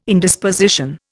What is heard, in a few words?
"Indisposition", Indian